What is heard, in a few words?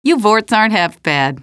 question, female